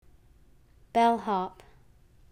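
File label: "bellhop", English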